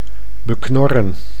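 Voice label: "beknorren", Dutch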